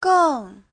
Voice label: "geng4", chinese; Giáo trình Hán ngữ

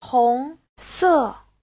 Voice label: "hong2 se4", chinese

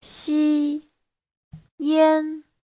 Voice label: "xi1 yan1", Chinese; 吸烟 : hút thuốc; Giáo trình Hán ngữ

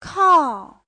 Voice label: "kao4", chinese; 靠

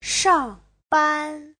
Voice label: "shang4ban1", Chinese; Giáo trình Hán ngữ

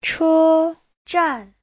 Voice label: "che1zhan4", Chinese; Giáo trình Hán ngữ

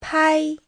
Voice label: "pai1", chinese

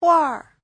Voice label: "huar4", chinese

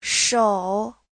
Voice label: "shou3", Chinese

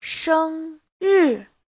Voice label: "sheng1ri4", Chinese

